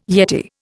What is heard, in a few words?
"yeti", Indian